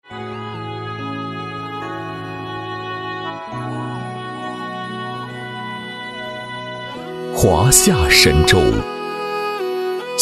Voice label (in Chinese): 男 风光样音, 国语男声配音; 玛纳斯